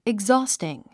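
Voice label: "exhausting", English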